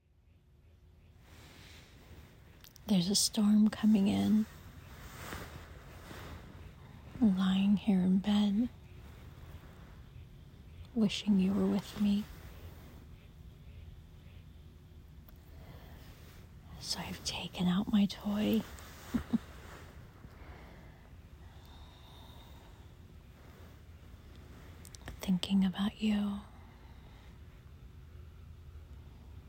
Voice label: Rainy day improv

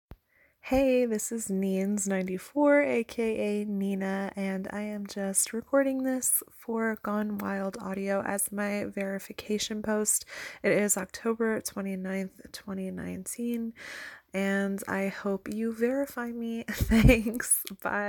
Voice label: F, Verification, Request

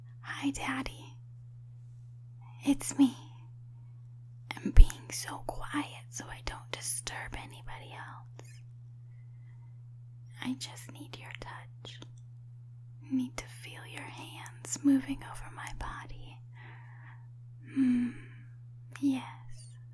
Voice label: Whispering, BJ noises; Erotic audio; dd lg, impregnation

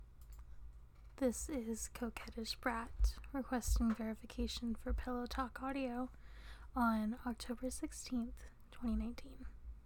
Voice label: F, Verification

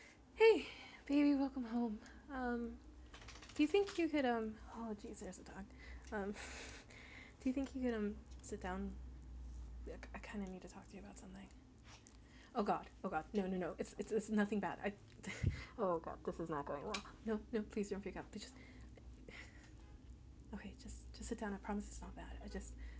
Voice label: reassurance, background noise; F4A; You Can Be Yourself Around Me; love love love; GFE

